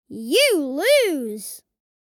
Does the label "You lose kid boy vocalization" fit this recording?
Yes